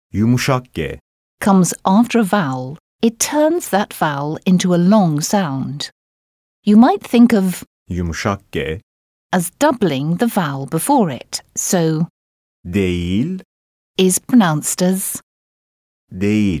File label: Pronunciation Letters, Turkish; Complete Turkish Teach Yourself